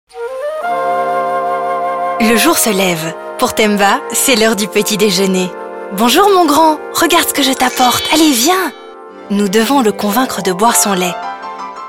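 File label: FR F character, French, female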